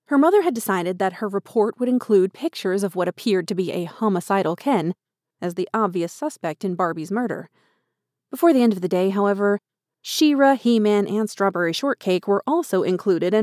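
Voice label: American English, Narration; GoLocalise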